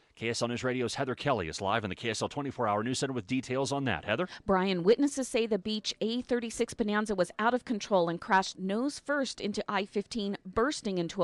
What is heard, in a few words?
Distraught 9-11 calls; flood weber county dispatch after plane crash